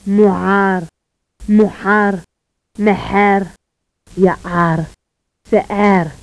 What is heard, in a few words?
Burkhikan agul; agul [muˈʕar muˈħar mɛˈʜɛr jaˈʡar sɛˈʡɛr]